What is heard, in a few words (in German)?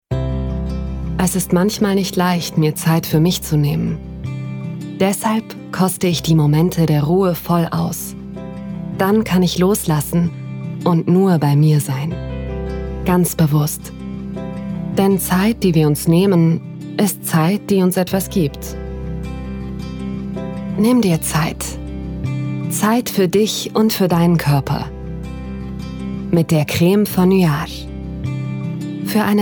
Werbung; Nuage